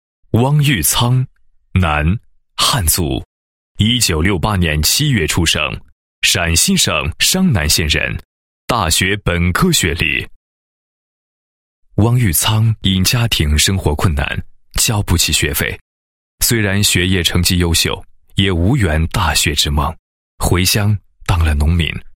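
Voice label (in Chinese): 男 人物专题 全国优秀共产党员勤廉创业事迹; 全国优秀共产党员汪某勤廉创业事迹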